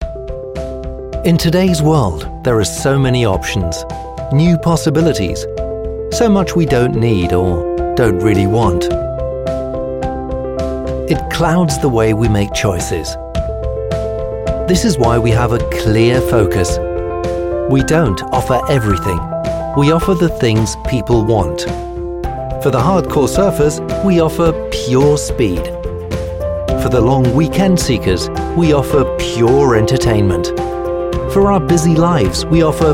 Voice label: voiceover native speaker uk british englischer sprecher commercial; Pyur